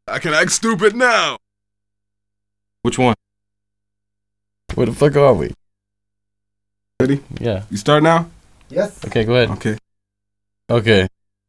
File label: Damned!, Rapsody Vocals; Masterbits CLIMAX 6